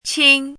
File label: "qin1", pinyin